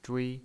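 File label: "zhui" Mandarin Chinese pinyin voice, first tone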